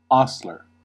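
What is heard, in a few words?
"hostler", US English; pronunciations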